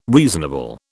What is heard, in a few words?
"reasonable", British